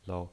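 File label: "lou", pinyin, Mandarin Chinese, neutral tone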